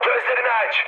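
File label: Turkish player friendly fire, mood high, speech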